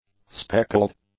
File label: "speckled", english; adjectives